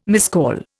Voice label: "miscall", Indian